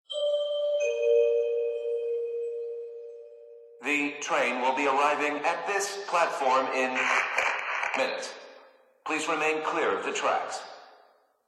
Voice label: Subway Announcement